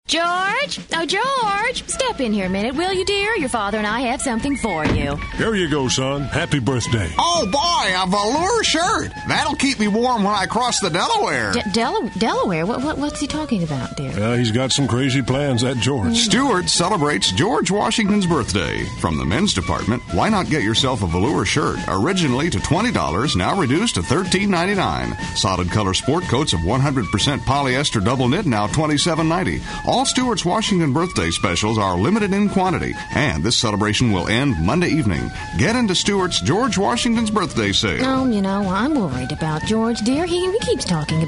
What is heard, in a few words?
Commercial; WKLO; Stewarts